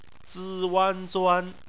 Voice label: "zuan", chinese